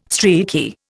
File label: "streaky", Indian